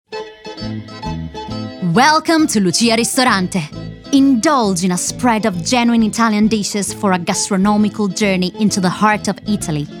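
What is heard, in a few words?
Italian, English accent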